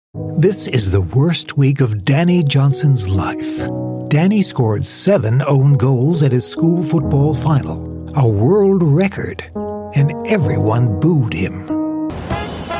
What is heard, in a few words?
AMERICAN